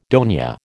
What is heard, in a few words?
"dona", American